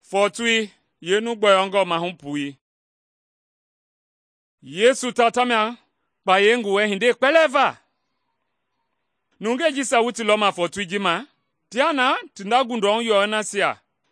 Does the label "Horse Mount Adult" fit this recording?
No